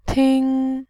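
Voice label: "ting", Mandarin Chinese, first tone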